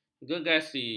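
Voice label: "gergasi", Malay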